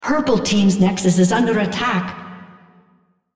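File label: On Nexus Under Attack purple female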